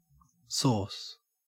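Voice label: "sauce", Australian English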